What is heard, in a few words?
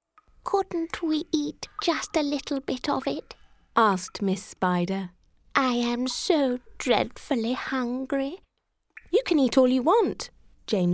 children's audiobook